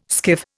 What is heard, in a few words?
"skiff", Indian